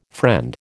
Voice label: "friend", American